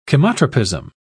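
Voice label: "chemotropism", British English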